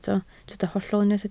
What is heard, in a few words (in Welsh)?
"hollol", Welsh